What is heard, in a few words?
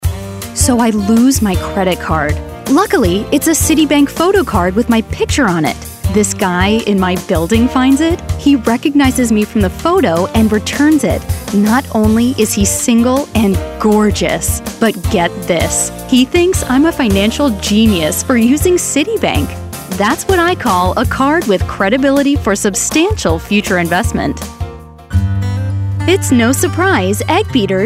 Women Commercial